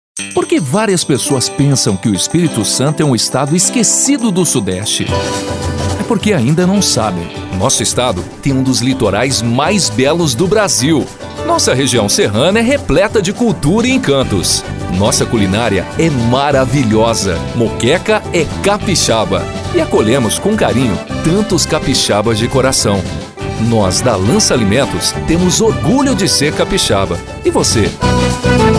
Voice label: portuguese brazilian narration

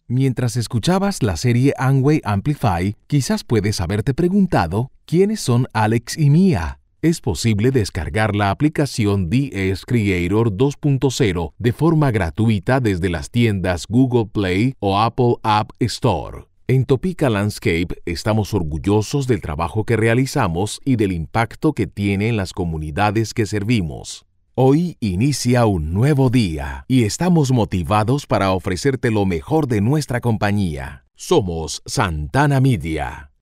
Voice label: spanish latin american corporate videos

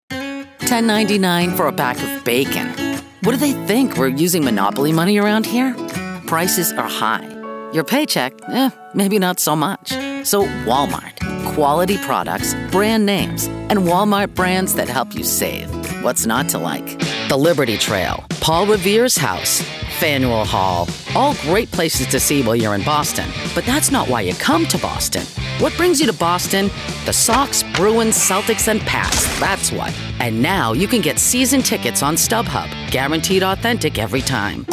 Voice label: english american commercial

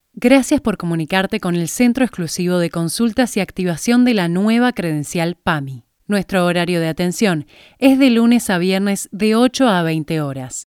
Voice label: spanish argentinian ivr

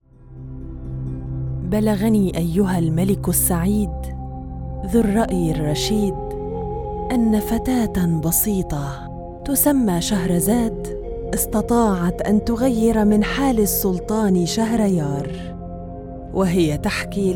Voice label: arabic promos

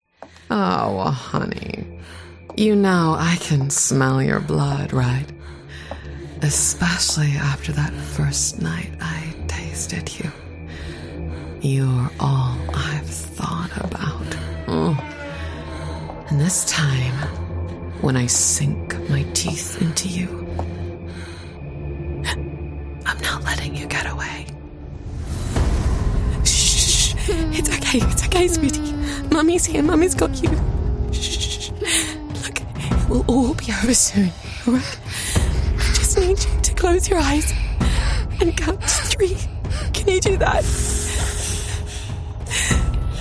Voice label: english international animation

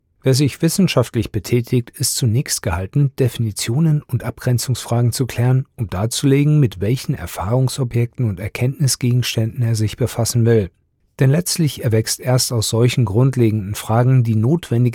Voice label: German e-learning

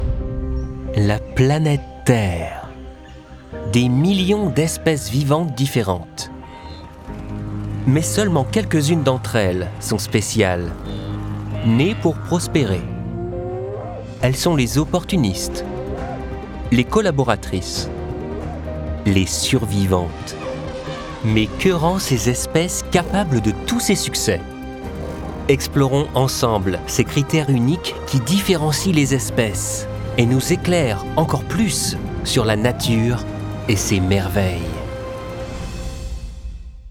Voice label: french documentaries

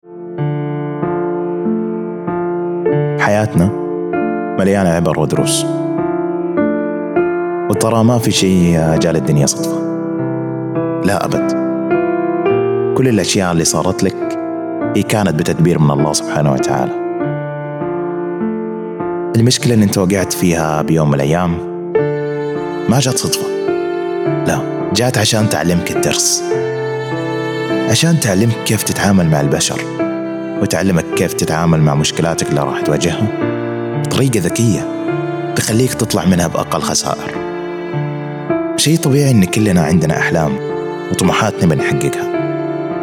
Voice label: arabic podcasts

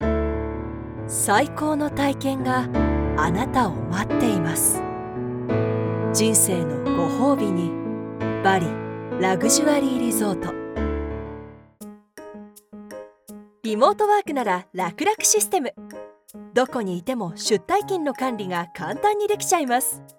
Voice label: japanese commercial

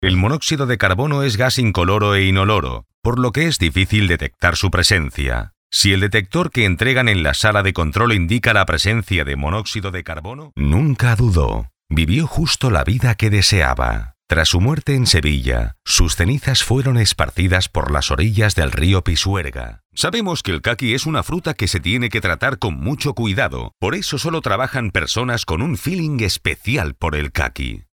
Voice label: catalan political ads

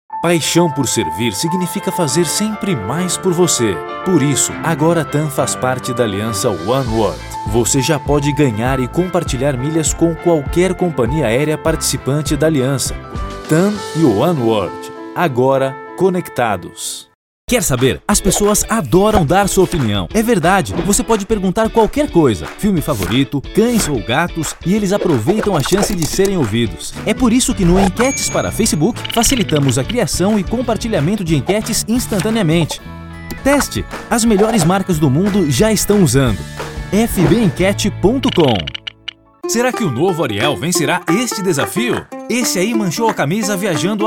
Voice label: portuguese brazilian commercial

